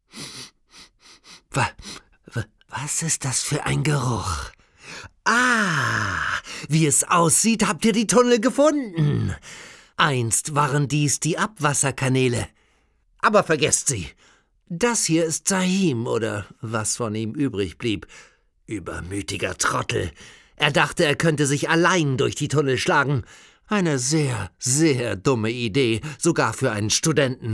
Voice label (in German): deutsch; Sprecher in Berlin; two worlds ii